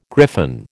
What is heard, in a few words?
"griffin", American